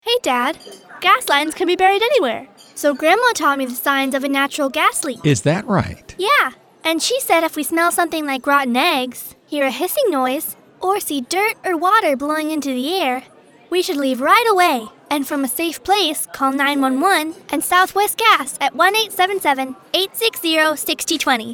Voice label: English radio spot; If You Smell Gas